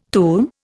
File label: "tum", Indian